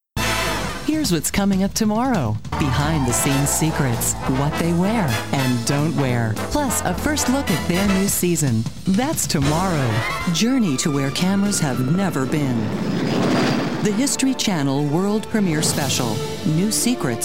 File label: VO PROMO